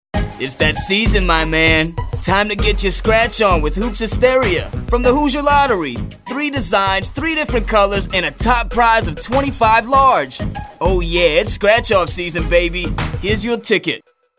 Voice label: hiphop